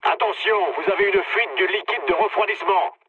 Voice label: damaged water mood low, speech fr; War Thunder